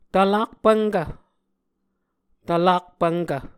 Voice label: "tvlak-pvnkv", muscogee